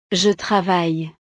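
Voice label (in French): "je travaille", French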